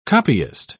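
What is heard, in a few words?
"copyist", British English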